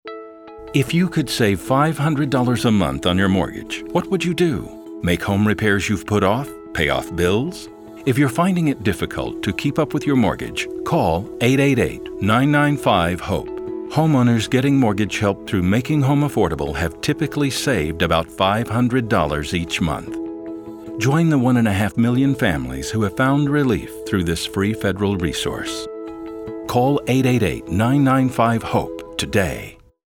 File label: Eng Male; Save Money